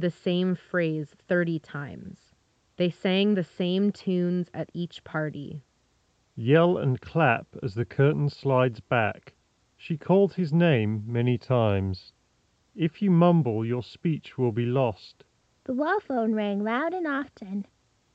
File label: Ideal microphone sample; KZ ZS10 Pro